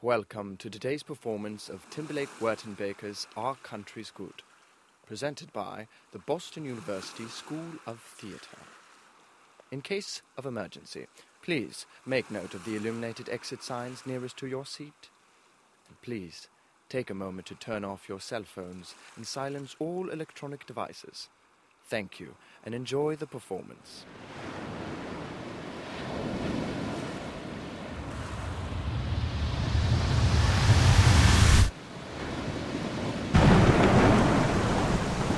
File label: Preshow Announce and Intro